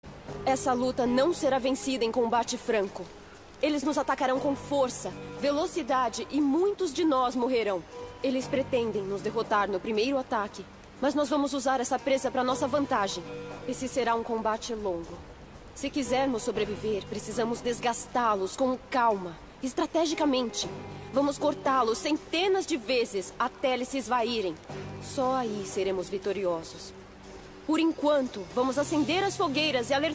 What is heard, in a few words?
portuguese brazilian video games